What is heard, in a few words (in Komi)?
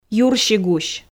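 "юрсигусь" Komi, female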